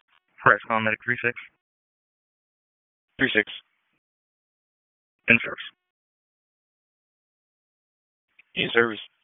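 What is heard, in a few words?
EMS Dispatch; wake; p25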